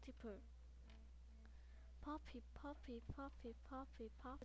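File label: "puppy", spoken word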